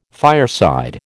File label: "fireside", American